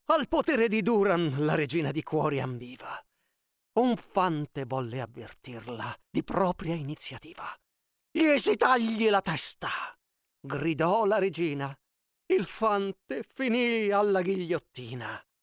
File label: italian audiobooks